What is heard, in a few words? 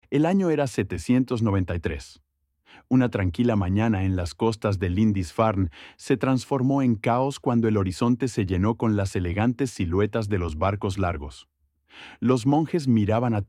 Practice your Spanish speaking skills